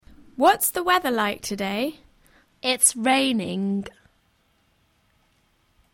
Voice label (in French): anglais dial